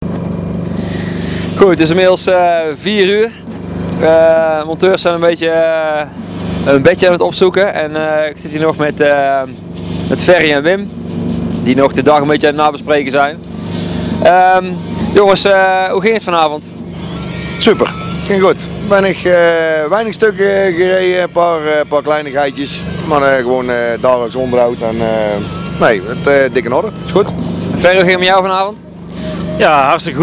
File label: voicemail